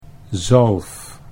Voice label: "zalf", Dutch